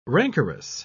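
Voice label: "rancorous", British English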